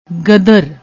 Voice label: "gadr", Punjabi